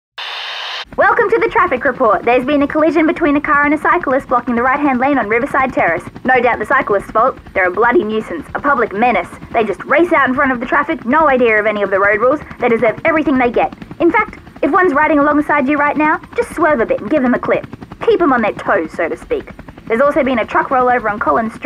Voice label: Radio Trailer